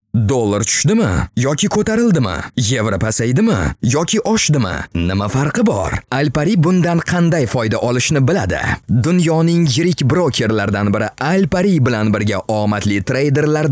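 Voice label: male Uzbek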